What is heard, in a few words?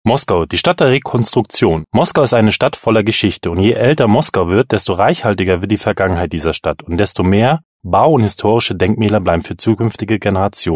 german male